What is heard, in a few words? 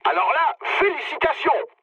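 French player killed enemy mood med; War Thunder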